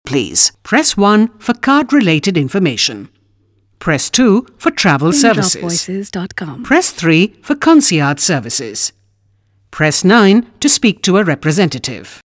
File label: English